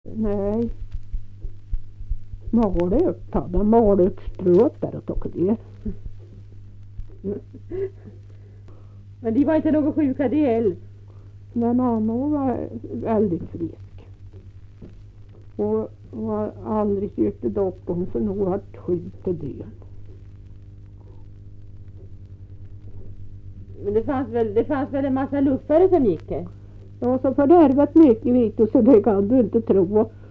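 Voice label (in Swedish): Kvistbro Närke, dialekter; Folkminnen; Svenska sagor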